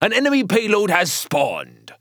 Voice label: Announcer Match Payload Enemy Arrived; Paladins; First Mate